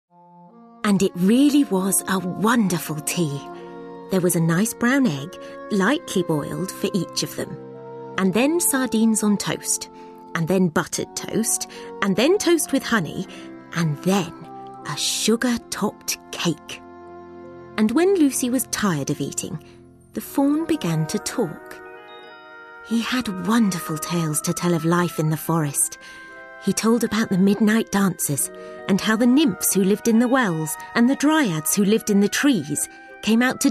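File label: drama; 20s-30s. Male. Cornish. Studio.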